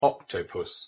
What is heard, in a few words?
pronunciation en octopus